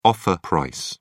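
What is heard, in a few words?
"offer price", British English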